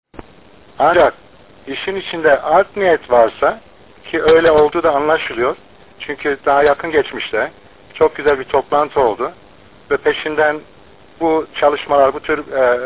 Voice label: QSO; KONFERANS ODASINA SATASMALAR